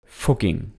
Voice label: "Fugging", German